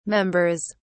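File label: "members", British English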